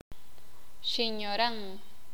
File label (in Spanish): "Ladina", Sakapulteko